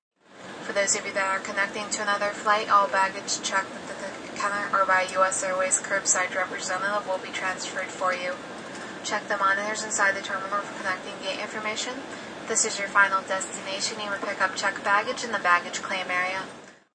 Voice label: Stewardess After Landing, Stewardess makes announcements after airliner lands; Airplane Sound Effects; Sound Effects:Vehicles